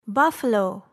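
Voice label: "buffalo", English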